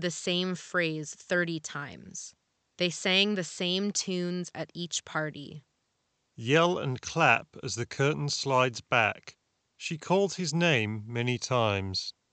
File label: SteelSeries Arctis Nova 1 Ideal microphone sample